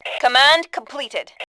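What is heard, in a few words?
"completed", female voice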